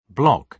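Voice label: "block", British English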